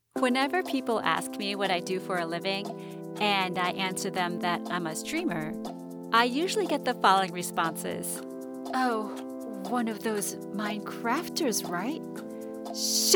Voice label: with music; Qiwi